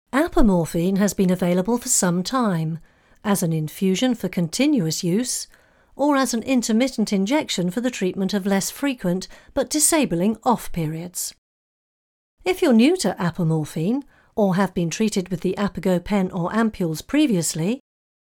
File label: narration